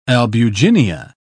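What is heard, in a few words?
"albuginea", British English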